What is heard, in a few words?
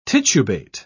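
"titubate", British English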